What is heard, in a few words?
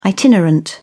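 "itinerant", English, British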